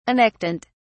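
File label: "annectent", British English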